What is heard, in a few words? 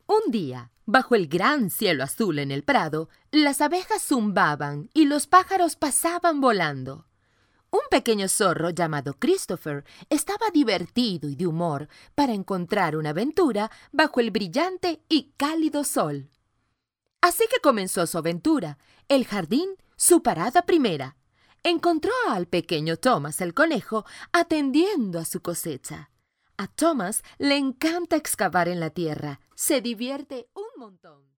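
Spanish Latin America female voice over, upbeat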